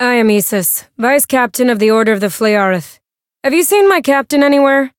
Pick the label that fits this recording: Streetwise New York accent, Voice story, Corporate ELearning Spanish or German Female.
Voice story